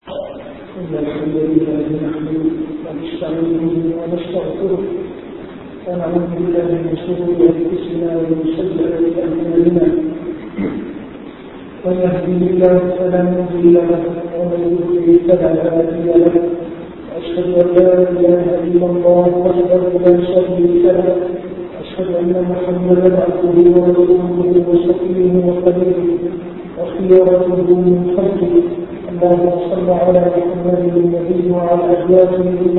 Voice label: kutbah; real success